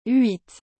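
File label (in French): "huit", French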